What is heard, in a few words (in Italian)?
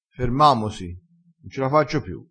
"si", crespinese